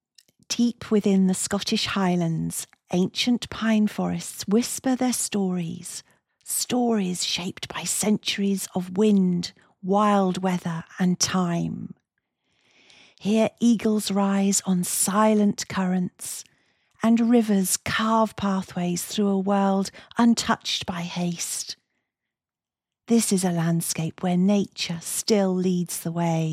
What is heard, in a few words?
DOCUMENTARY Nature Science, voiceovers